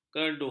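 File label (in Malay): "kedu", Malay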